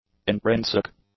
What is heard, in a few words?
"intrinsic", english; adjectives